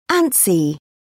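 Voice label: "antsy", British English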